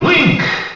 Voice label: Announcer; Link; SSBM

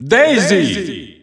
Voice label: Italian Announcer; SSBU

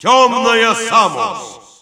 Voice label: Russian Announcer; Dark Samus; SSBU

